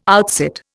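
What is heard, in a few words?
"outsit", Indian English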